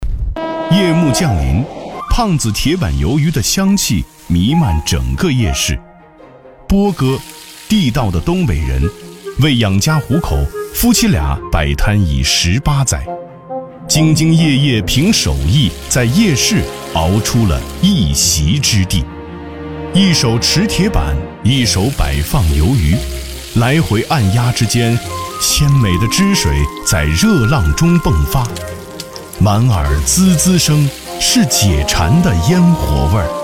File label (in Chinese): 男 舌尖感 铁板鱿鱼; 胖子